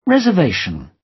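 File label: "reservation" British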